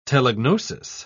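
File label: "telegnosis", British English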